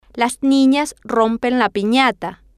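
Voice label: las ninas rompen la pinata, Spanish, female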